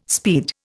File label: "speed", Indian